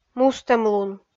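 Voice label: "мустӧмлун", Komi, female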